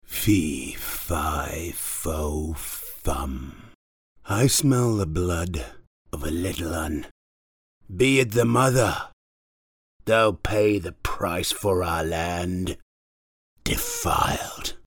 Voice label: Animated Character villian